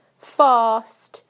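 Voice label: "fast", British English; PictureDictionary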